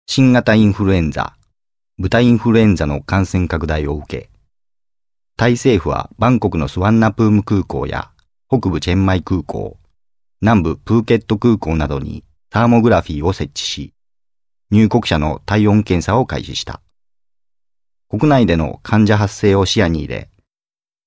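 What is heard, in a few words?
japanese male narration; voice demos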